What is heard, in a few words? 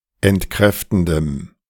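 "entkräftendem", German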